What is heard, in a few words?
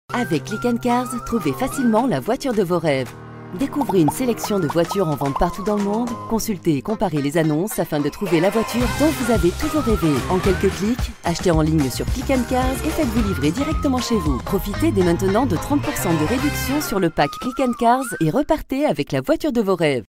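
French, female, click and cars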